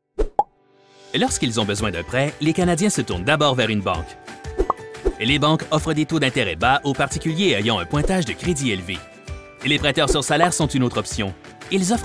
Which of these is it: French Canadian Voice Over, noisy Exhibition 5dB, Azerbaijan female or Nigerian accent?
French Canadian Voice Over